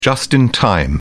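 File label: "just in time", British English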